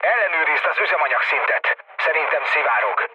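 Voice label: Hungarian tank damaged mood low, speech; War Thunder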